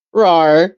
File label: voice message